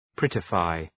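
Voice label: "prettify", English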